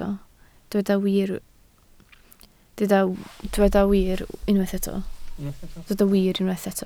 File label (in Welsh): "wir", Welsh